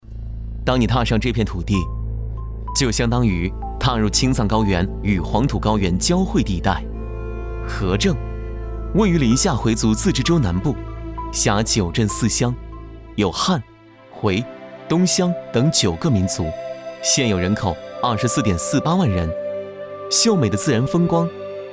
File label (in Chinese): 男 旁白解说